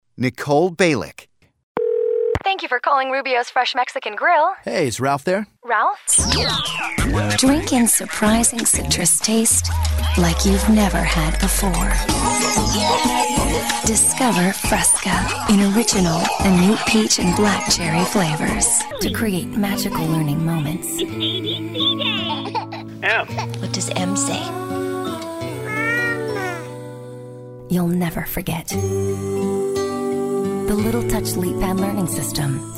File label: Commercial Demo